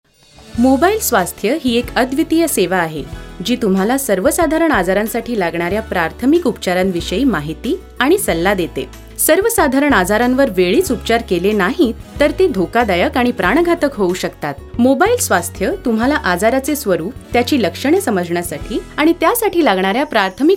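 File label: Marathi; voice overs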